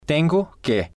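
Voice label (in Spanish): tengo que, Spanish, male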